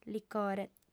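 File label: "licore", female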